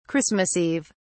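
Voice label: "christmas eve", British English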